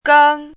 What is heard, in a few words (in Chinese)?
"geng1", 汉字语音